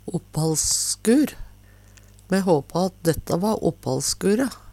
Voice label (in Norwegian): opphallskur, Numedalsmål; Me håpa at detta va opphallskure.; Substantiv hokjønn; Vêr og føre